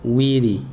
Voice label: "two", Chikunda